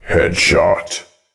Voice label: headshot, announcer, male